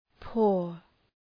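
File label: "pour", English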